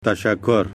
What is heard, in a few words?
thanks, pashto